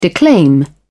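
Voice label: "declaim", British English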